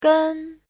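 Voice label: "gēn", Mandarin Chinese; Giáo trình chuẩn HSK3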